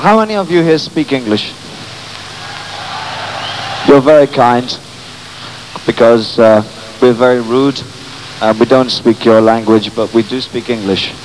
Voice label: in-between song chatter; performance in Rotterdam, 1976